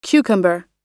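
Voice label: "cucumber" US English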